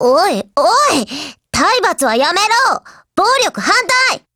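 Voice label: DIALOGUE, Japanese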